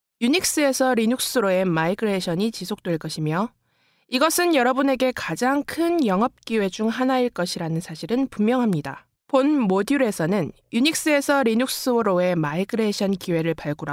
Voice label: korean female

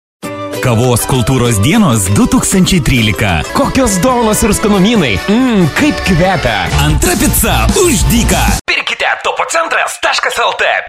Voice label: lithuanian male

